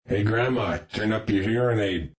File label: Hearing Aid, Male; Talking